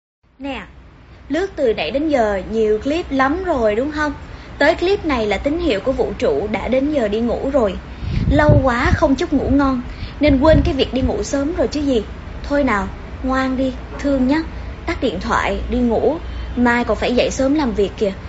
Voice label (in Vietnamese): ghi am chuc ngu ngon giong nu